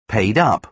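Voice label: "paid-up", British English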